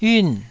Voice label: "yun4", taiwanese Syllables

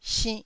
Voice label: "sinnh1", Taiwanese

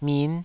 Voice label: "min5", taiwanese

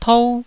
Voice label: "tou1", Taiwanese

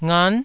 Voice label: "ngan9", taiwanese syllables

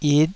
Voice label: "yit5", taiwanese

